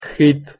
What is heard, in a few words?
"xid" Iron